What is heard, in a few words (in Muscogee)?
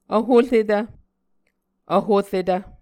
"vhoretv", Muscogee